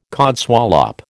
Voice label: "codswallop", American